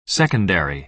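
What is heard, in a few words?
"secondary", American English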